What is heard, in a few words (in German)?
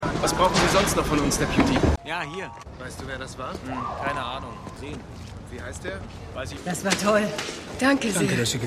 Stadtarbeiter Polizisten Frau; The Wire